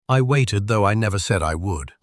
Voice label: voice sample, A strong voice perfect for delivering a professional broadcast or news story.; Daniel; Shot Studio